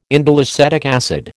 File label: "indoleacetic acid", American